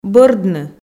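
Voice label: "бӧрдны", Komi, female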